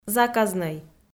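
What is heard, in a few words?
"заказнӧй", Komi, female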